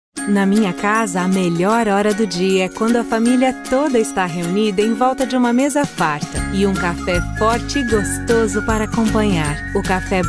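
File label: Brazilian Portuguese, female, commercial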